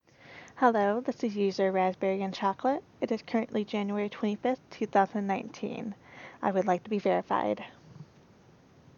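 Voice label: Verification, Female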